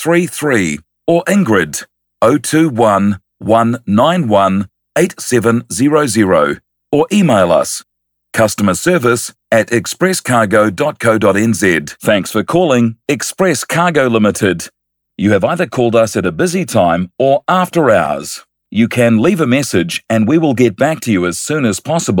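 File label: New Zealand PHONE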